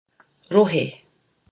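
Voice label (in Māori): "rohe", Māori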